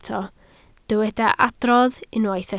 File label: "adrodd", Welsh